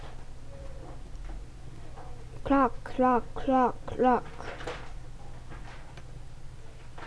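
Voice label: "clock", spoken word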